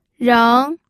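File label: "reng", Mandarin Chinese, second tone; pinyin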